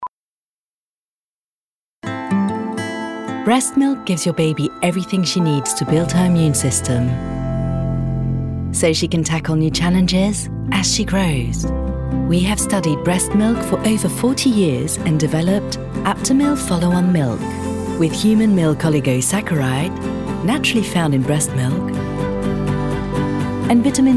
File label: UK; Aptamil Milk